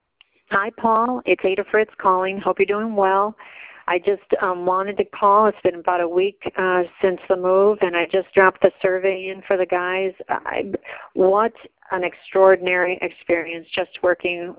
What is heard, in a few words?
Customer Recorded Review; Mid West Moving